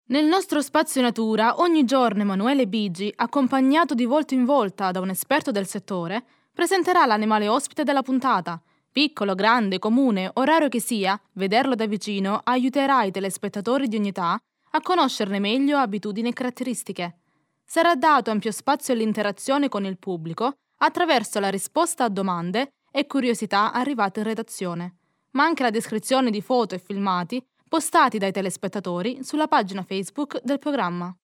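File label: Italian female voice over, upbeat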